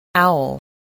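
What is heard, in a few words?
"owl", English word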